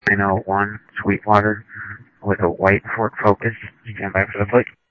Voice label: police, scanner audio